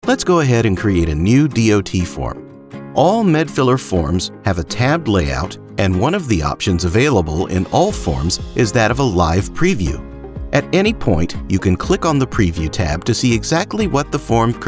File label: eLearning